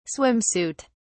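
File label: "swim suit", British English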